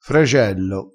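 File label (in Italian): "fragello", vocabolario dialettale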